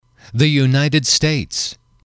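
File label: United States, male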